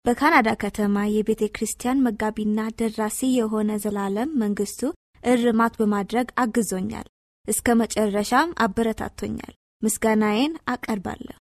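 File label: Amharic, female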